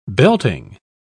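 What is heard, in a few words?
"belting", British English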